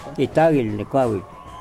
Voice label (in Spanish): Nawat palabras fruta; pipil